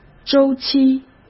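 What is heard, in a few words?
"周期", Mandarin Chinese; hsk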